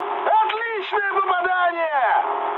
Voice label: bomb success, mood high, speech ru; War Thunder

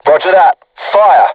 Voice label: voice message art shoot, vietnamese

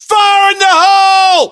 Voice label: voiceovers, US; english

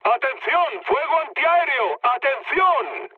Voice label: damaged aa, mood low, speech; War Thunder

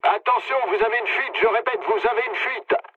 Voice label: damaged water mood low, speech fr; War Thunder

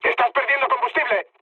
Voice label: tank damaged, mood med, speech; War Thunder

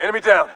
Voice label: enemy down, Human; Titanfall 2; Pilots

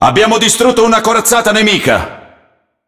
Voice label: It Speech Destroy Enemy Battleship; World Of Warships

